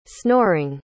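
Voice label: "snoring", English pronunciation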